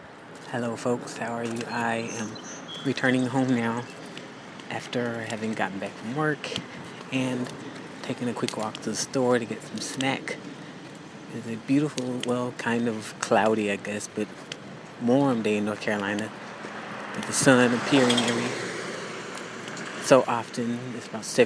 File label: idle chatter